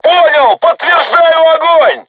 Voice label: voice message art shoot, crew tanks russian

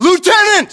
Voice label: rank lieutenant, US

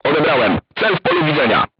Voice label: voice message target lock, crew tanks polish

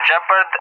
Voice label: "Gepard", ENG; Allied Flight; AirDefence; DCS

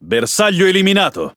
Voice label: Speech Pilots Torpedo Tangodown, IT; World Of Warships

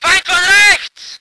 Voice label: voice ger vehicle

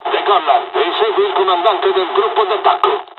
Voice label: first wave takeoff, dialogs italian; War Thunder

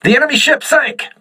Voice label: voice message enemy destroyed, crew ships english

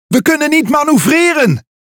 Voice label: NL Speech Defective Modules Wheel Damaged; World Of Warships

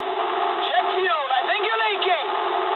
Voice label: eng tank damaged mood high, speech eng

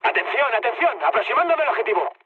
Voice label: near waypoint, mood med, speech; War Thunder

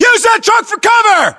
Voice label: order cover truck, US

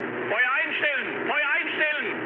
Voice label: player friendly fire, mood med, speech ge; War Thunder

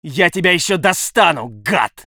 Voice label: voice sov infantry

